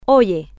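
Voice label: "oye", Spanish, female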